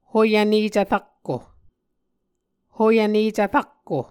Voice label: "hoyvnecv rakko", Muscogee

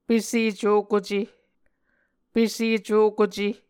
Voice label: "pese cokuce", Muscogee; Mvskoke Words with Voicing Consonants; Onvkv Ohhvyayices

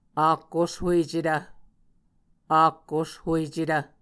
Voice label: "ak oshuecetv", Muscogee

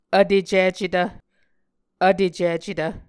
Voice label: "vtecicetv", Muscogee

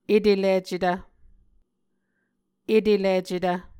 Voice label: "etelicetv", Muscogee

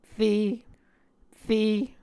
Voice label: "re", muscogee

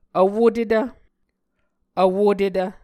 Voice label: "vwotetv", Muscogee

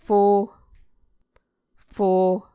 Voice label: "fo", Muscogee

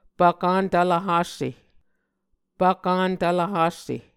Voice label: "Pvkan tvlvhasse", Muscogee

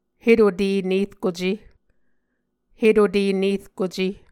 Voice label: "hetute nerkuce", Muscogee

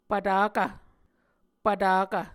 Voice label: "pvtakv", Muscogee

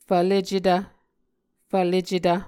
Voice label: "fvlecetv", Muscogee

